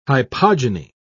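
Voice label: "hypogyny", British English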